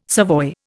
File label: "savoy", Indian